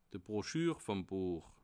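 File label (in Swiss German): "broschur", Bas Rhin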